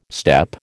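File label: "steppe", American English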